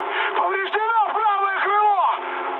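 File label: right wing, mood high, Russian; War Thunder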